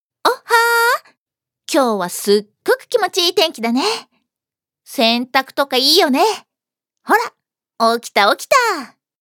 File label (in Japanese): ハイテンション, ハイテンションな女の子